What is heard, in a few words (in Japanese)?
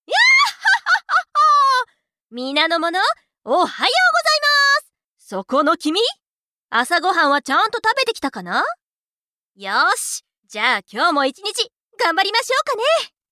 genki